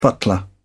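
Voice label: "butler", English, British English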